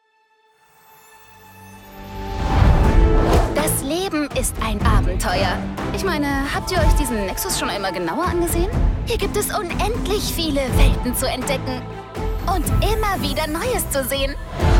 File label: game trailer; heroesofthestorm; li-li